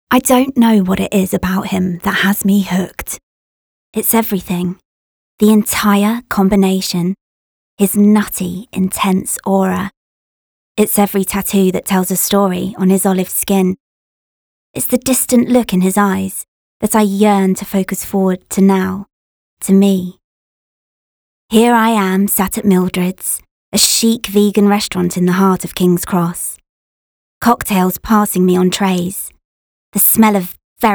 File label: Narration; Confusing life of a barbie